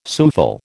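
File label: "souffle", American English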